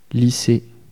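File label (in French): "lycee" French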